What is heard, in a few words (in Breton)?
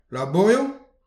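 "labouriou", Breton; petit precis de prononciation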